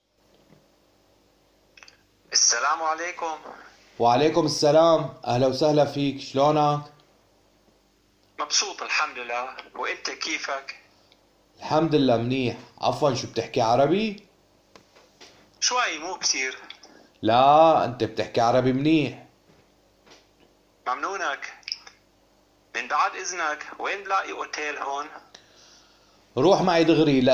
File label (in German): hoeruebung nach dem Weg fragen, syrisch-arabischer Dialekt; Harrell